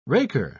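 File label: "raker", British English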